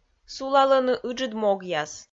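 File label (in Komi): сулалӧны ыджыд могъяс, Komi, female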